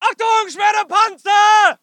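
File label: voice german infantry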